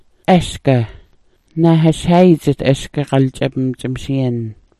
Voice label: "asga", Smalgyax